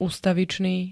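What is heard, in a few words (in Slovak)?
"ustavičný", Slovak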